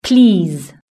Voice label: "please", british english

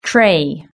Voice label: "tray", british english

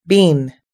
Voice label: "bean", us english

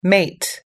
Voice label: "mate", us english

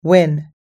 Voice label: "win", us english

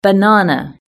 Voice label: "banana", british english

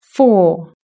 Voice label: "four", british english

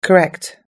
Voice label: "correct", us english

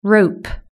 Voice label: "rope", british english

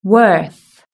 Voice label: "worth", british english

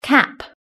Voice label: "cap", british english

